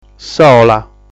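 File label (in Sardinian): "Sola", Sardinian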